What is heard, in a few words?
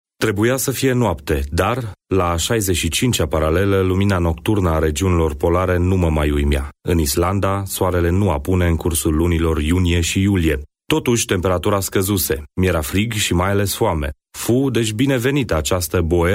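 RO M AudioBook, Romanian, male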